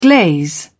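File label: "glaze", British English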